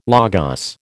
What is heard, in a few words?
"Logos", American